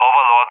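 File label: "Overlord", English; Allied Flight; CallSign; DCS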